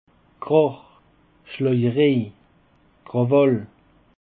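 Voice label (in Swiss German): "krach", Bas Rhin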